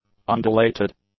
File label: "undilated", English; adjectives